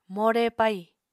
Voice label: "more pai", Apatani